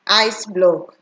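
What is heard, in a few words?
"ice block", Nigerian Pidgin, female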